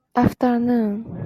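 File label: "afternoon", Japanese, female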